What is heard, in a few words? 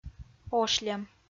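"ошльӧм", Komi-Zyrian, female; audio dict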